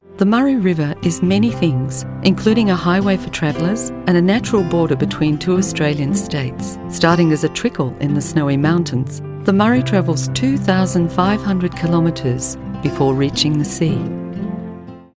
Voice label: Documentary

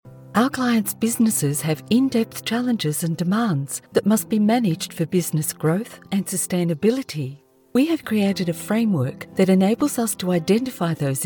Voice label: Corporate

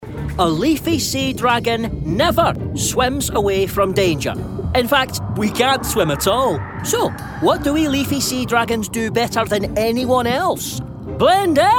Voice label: animation Scottish

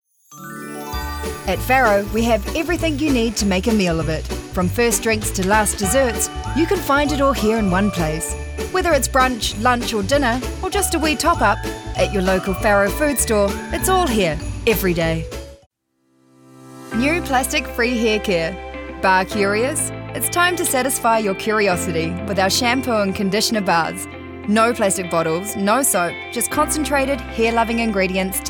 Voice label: FRIENDLY

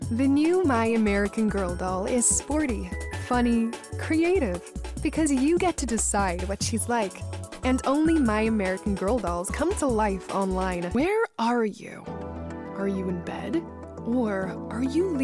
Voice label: Accent us

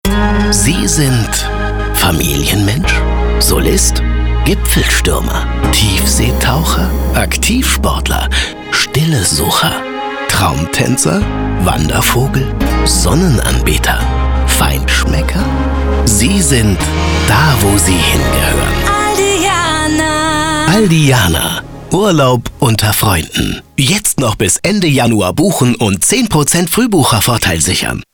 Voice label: Funkspot; Aldiana; Processed

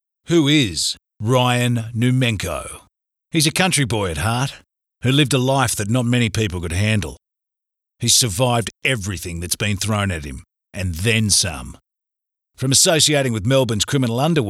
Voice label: Podcast Sponsorship Intro

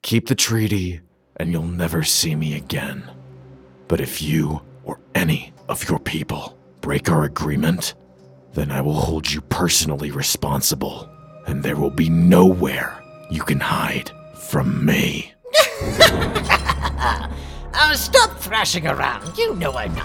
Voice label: Character

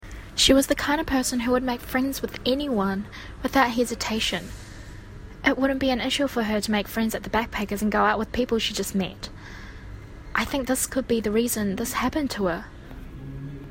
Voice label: Voice Actor; BigMouthVoices

